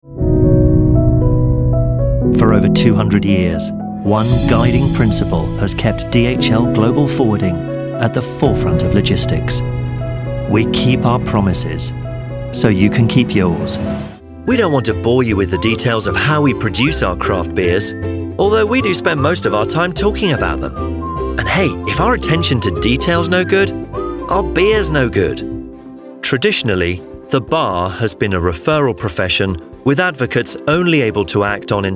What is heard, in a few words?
male british voiceover corporate narration